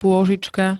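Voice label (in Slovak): "pôžička", Slovak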